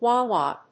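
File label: "Wah-Wah", English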